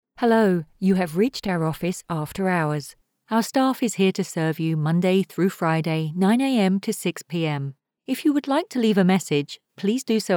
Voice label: Telephony

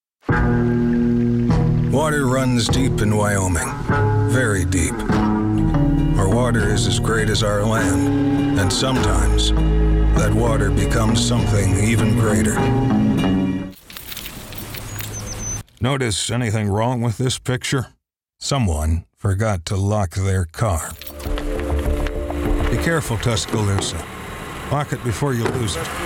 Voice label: Commercial General

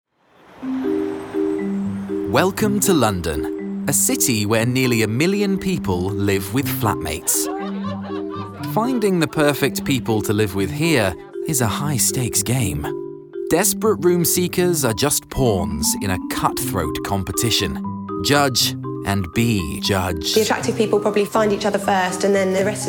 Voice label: Narrative

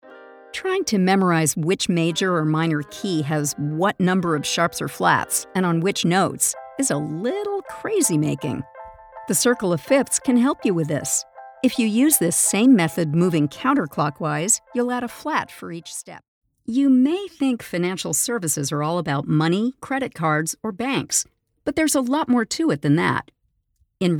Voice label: elearning

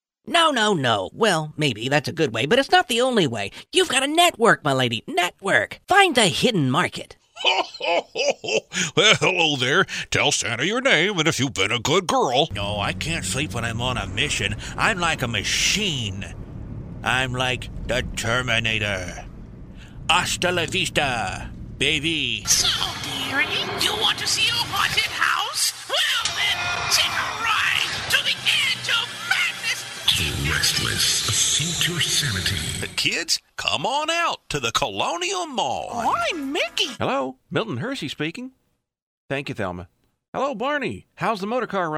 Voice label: Character

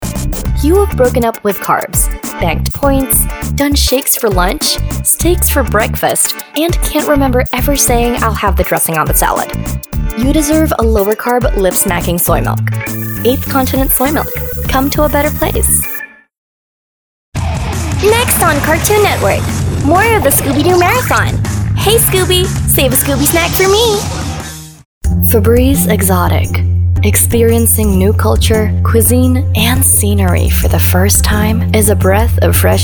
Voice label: English

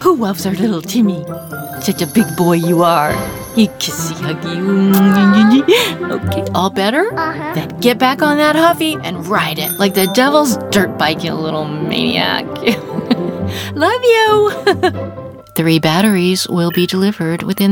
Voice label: Animation

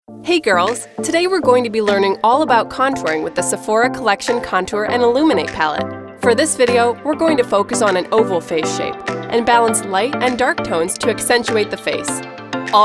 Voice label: Explainer

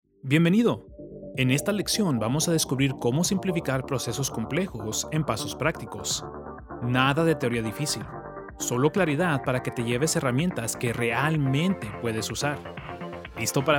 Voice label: E-Learning SPANISH

